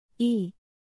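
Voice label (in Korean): "이", Korean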